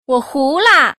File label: "CHI HU", girl; ErRenmj